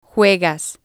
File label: "juegas", spanish